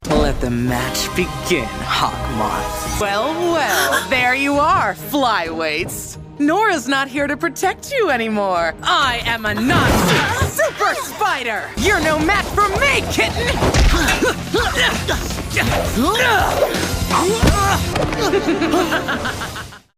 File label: female wrestler gruff raspy intense fighting opponent action adventure villain